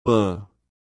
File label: Phoneme Male; Commonscript; Lynguistykon